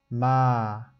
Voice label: ma, 吴语; m，它没什么特别，就是英语里面的m。; 唇音